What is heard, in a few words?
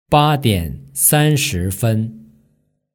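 "badiansanshifen", Mandarin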